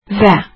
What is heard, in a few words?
and, azeri